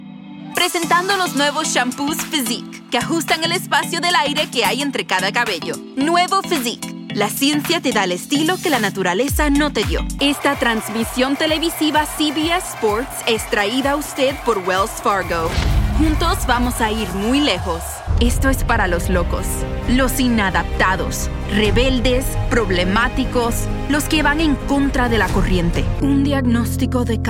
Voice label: voiceover foreign language spanish; chicago

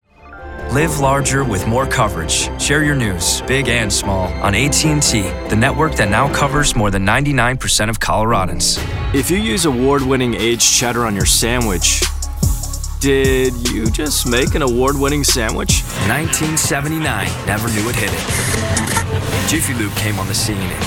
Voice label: voiceover commercial men; new york

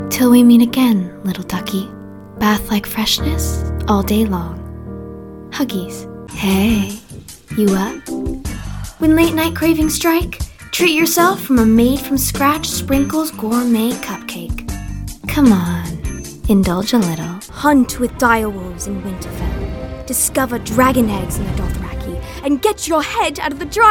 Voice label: Voiceover Animation; New York

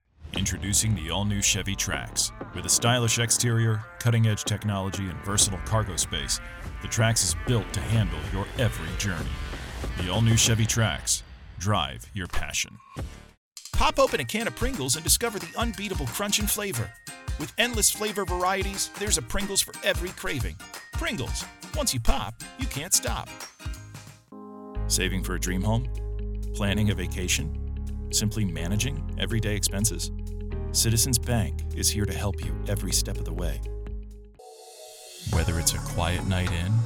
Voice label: Voiceover Commercial Men; New York; Commercial Demo

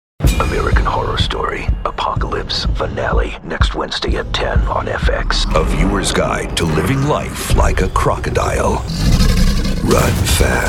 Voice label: Voiceover, Political, Men; chicago

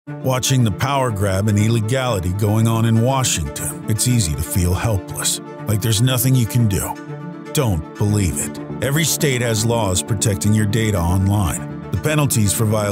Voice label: Voiceover Political Men; chicago

